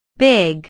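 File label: "big", English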